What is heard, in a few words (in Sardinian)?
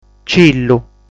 "Cillu", sardu